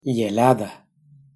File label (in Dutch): "yelada", Kotava; thee-in-andere-talen